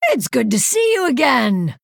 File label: Female Night Elf Greetings